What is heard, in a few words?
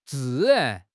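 "zì rán", Chinese; HSK4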